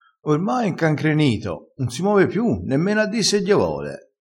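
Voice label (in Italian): "incancrenito", crespinese dialettale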